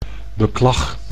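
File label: "beklag", Dutch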